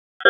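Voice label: Police Southwest District Dispatch, p25; wake p25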